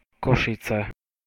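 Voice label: "Košice", Slovak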